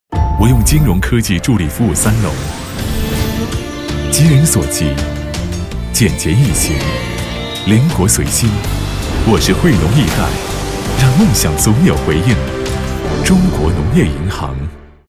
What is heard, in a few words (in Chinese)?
国语男 广告 银行类; 中国农业银行15秒形象篇